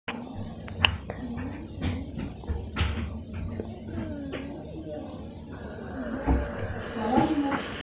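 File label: "responsibility", Mandarin, hearing-impaired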